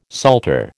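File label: "salter", American English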